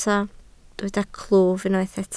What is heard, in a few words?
"clwyf", Welsh